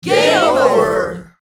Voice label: Crowd shouts game over